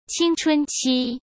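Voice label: "青春期", Mandarin Chinese; chinese-flashcards; hsk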